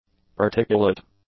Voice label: "particulate", English; adjectives